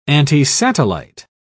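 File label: "antisatellite", British English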